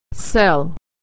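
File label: "sell", American English; homophones; sell - cell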